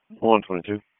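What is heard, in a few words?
Police Southwest District Dispatch; Raleigh; wake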